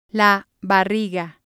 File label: "belly" Spanish